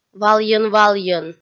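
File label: "валйӧн-валйӧн", Komi-Zyrian, female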